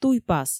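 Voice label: "туйпас", Komi, female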